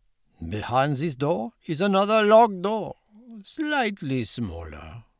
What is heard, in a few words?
french